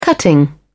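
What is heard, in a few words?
"cutting", British English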